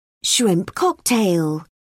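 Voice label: "shrimp cocktail", British English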